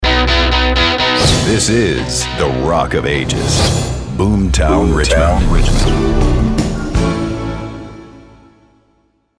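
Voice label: radio imaging, voice actor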